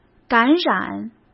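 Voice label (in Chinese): "感染", Mandarin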